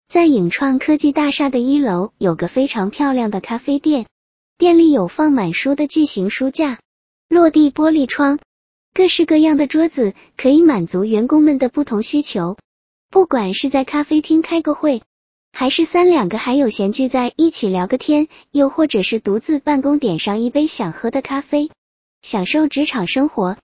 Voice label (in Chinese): 迅捷文字转语音; 在影创科技